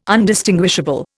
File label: "Undistinguishable", Indian